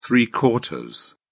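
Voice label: "three-quarters", British English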